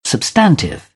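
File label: "substantive", British English; AmericanBritish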